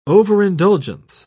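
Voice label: "overindulgence", British English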